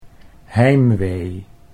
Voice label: "heimwee", Dutch